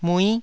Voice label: "mui9", taiwanese